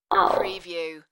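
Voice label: Young Lady Says Ow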